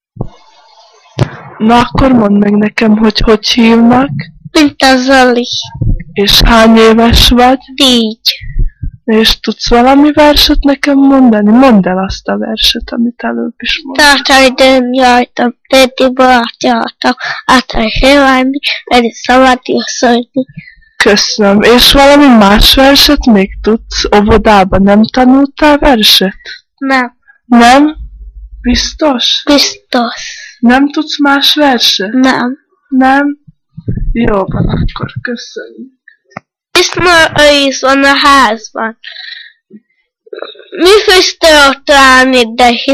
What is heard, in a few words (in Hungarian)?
nyelvjaras gyujtesek